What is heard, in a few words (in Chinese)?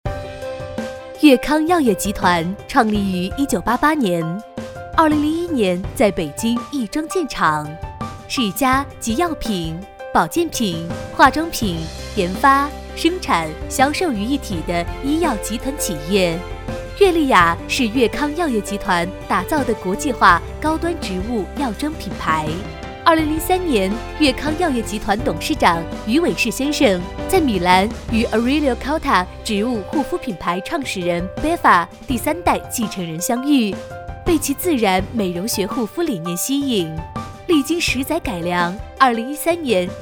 女 宣传片 时尚品质; 悦丽雅宣传片